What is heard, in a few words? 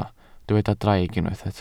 "draig", Welsh